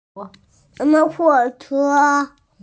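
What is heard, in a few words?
"huo3che1", Mandarin Chinese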